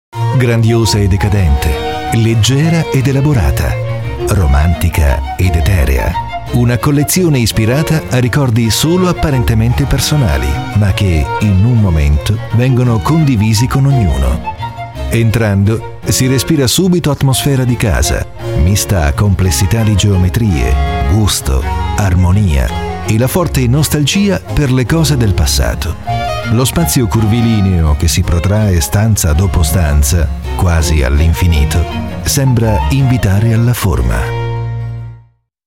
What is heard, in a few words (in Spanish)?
locutor italiano